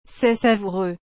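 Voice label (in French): "C'est savoureux", French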